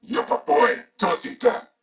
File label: Patrol, Corpus Venus Male; Dialog; Barks; Battle; Warframe; CorpusVenusMaleA